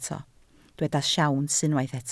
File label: "siawns", Welsh